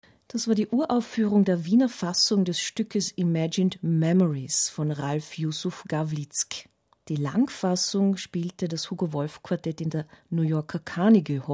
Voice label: broadcast outro; Radioklassik